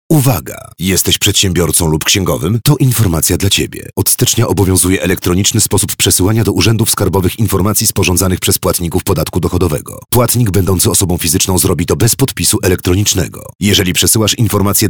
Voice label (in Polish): spot radiowy